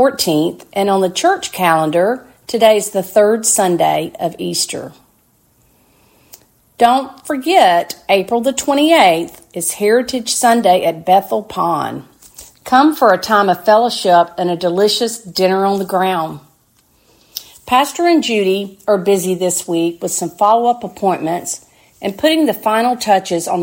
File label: Welcome and Announcements